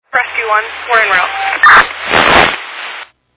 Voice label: Fire Dispatch